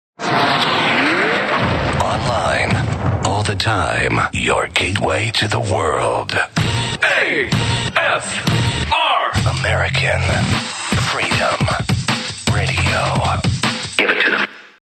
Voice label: promo